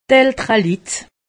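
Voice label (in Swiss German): "elterelitt", Alsatian; medecine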